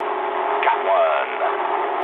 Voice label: eng player killed enemy mood low, speech eng